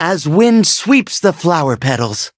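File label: level up, English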